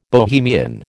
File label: "Bohemian", American